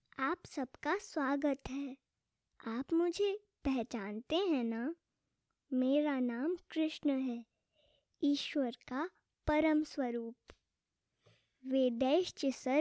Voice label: hindi, child

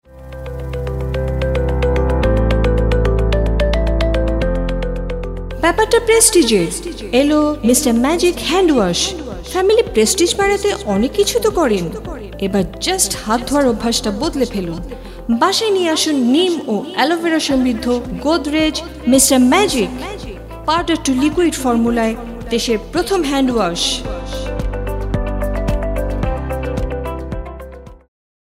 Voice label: ad bangla

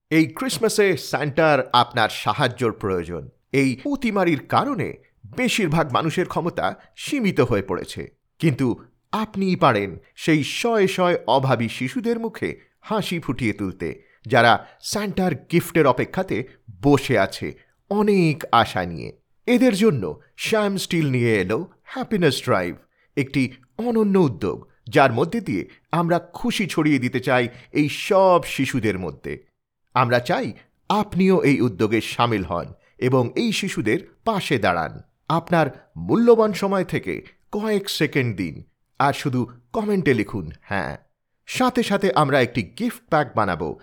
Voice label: Corporate Bengali

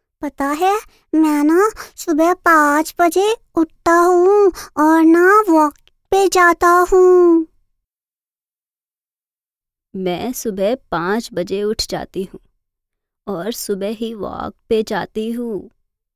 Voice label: characters hindi

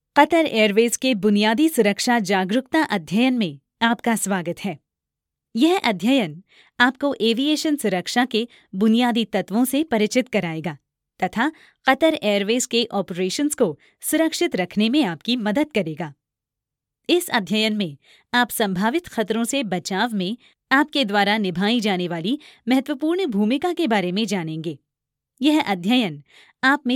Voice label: Hindi

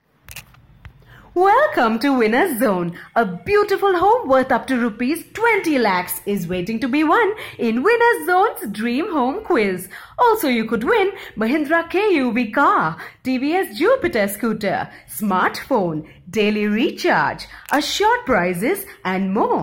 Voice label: Hindi RADIO SPOT

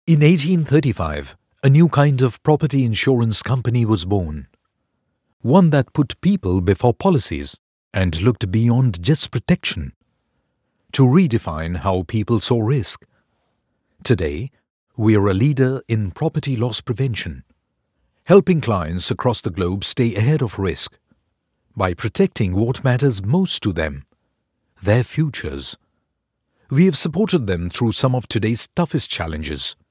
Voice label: BRITISH NARRATION

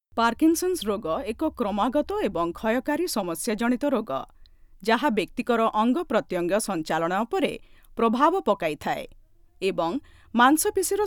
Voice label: narration ORIYA

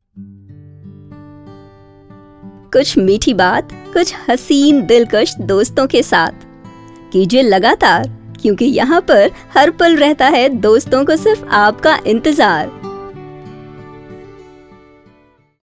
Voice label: promo, hindi

